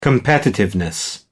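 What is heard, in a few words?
"competitiveness", British English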